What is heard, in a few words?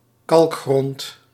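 "kalkgrond", Dutch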